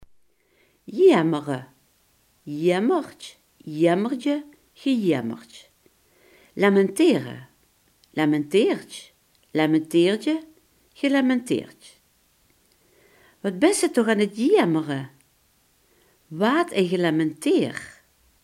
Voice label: "jammeren", Limburgs

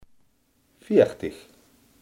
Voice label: "veertig", limburgse

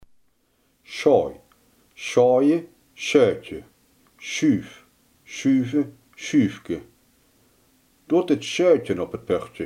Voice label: "schuifslot", Limburgs

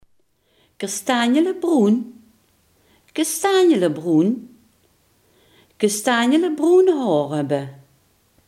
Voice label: "kastanjebruin", Limburgs

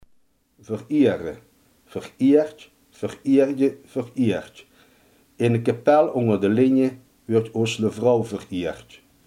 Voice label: "vereren", limburgse

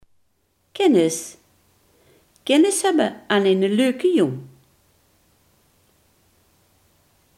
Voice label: "kennis", Limburgs

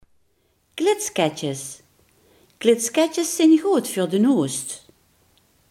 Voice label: "katjesdrop", Limburgs

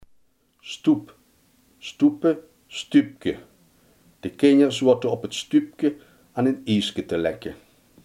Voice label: "stoep", Limburgs

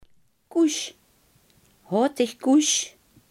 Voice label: "koest", Limburgs

